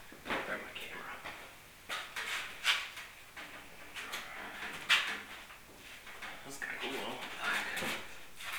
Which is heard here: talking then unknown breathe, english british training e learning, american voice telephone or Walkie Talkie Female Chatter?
talking then unknown breathe